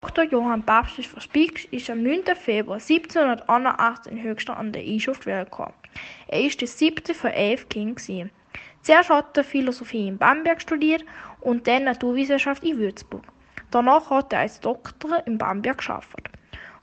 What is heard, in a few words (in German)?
österreichisch; Das Leben unseres Namensgeber in verschiedenen Sprachen und Dialekten.